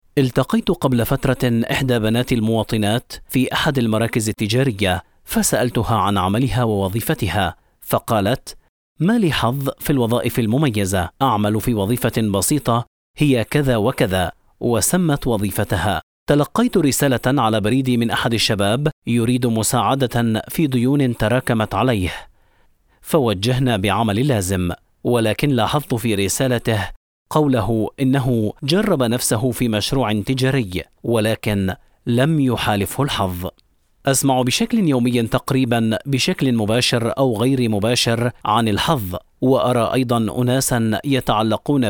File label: Arabic Audio Books Narrators